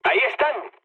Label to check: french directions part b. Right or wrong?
Wrong